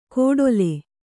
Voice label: "ಕೋಡೊಲೆ", Kannada

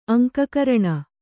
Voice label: "ಅಂಕಕರಣ", Kannada; aŋka karaṇa; noun

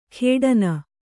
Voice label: "ಖೇಡನ", Kannada

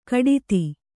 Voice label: "ಘಟಿತ", Kannada

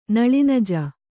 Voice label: "ನಲಿನಜ", Kannada

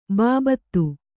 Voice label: "ಬಾಬತ್", Kannada

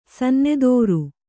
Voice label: "ಸನ್ನೆದೋರು", Kannada

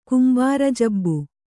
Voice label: "ಕುಂಬಾರಜಬ್ಬು", kannada

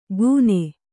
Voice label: "ಕೂನೆ", Kannada

